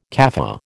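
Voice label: "café", American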